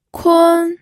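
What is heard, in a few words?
"kun", Mandarin Chinese, first tone; pinyin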